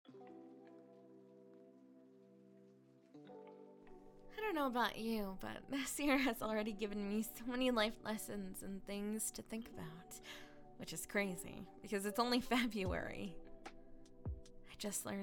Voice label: Positive Message, asmr; You Decide